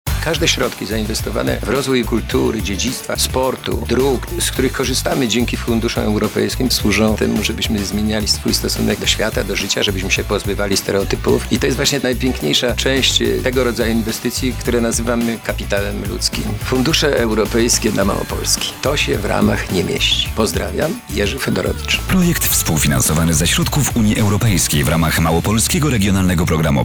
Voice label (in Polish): spot radiowy